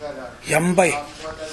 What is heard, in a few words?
"yanbae", minamiyamagata